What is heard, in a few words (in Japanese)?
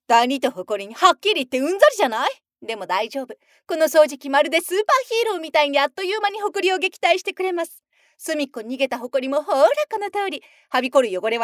早口・セクシー